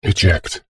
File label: computer console voice robotic male says eject; science fiction